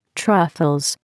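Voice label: "truffles", English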